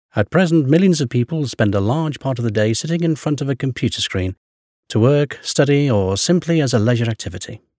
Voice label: locutor ingles english voiceover; speaker Inglese